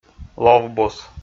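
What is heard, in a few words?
"лов бус", Komi, male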